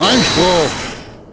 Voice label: "Objection", German; Godot